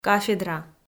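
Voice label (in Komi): "кафедра", Komi, female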